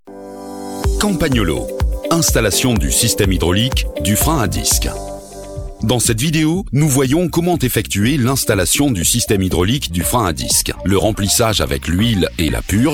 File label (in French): tutoriel, voix grave